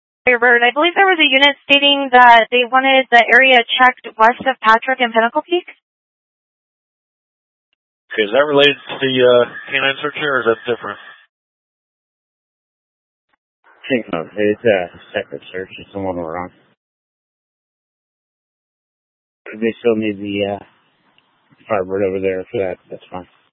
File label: Mutual Aid-Intersystem Interop B, scanner; AMBER Alert